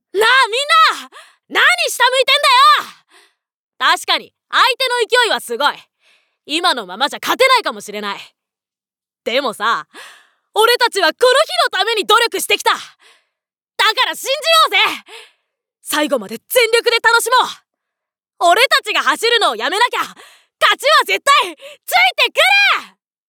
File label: boy; 少年